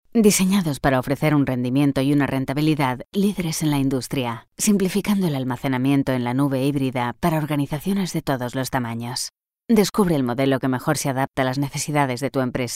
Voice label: Informative